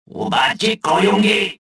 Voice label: detected, rodian